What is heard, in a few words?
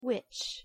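"which", English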